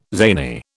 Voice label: "zany", British